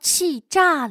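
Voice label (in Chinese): "气炸", Chinese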